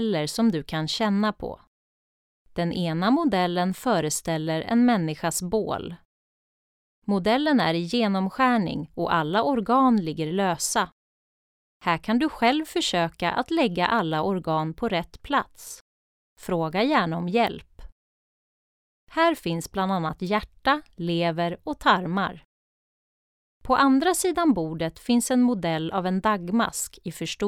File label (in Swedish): syntolkning; Station 5